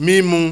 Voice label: "mimu", Yoruba, high high tone; High Mid; Nine Possible Combinations; Initial High tone combinations